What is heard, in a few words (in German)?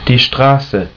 "Strasse", German